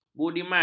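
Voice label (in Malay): "budiman", Malay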